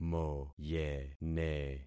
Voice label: "moyene", concat syllables; Affixing experiment

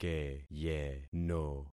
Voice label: "keyeno", concat syllables; Affixing experiment

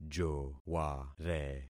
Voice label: "joware", concat syllables; Affixing experiment

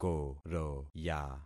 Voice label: "koroya", concat syllables; Affixing experiment

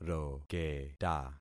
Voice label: "roketa", concat syllables; Affixing experiment

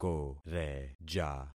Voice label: "koreja", concat syllables; Affixing experiment

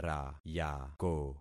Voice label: "rayako", concat syllables; Affixing experiment

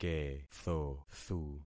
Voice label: "kethothu", concat syllables; Affixing experiment

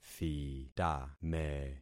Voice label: "thitame", concat syllables; Affixing experiment

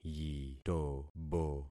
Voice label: "yitobo", concat syllables; Affixing experiment

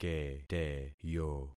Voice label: "keteyo", concat syllables; Affixing experiment

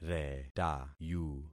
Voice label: "retayu", concat syllables; Affixing experiment

